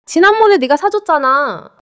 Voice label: neutral